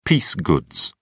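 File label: "piece goods", British English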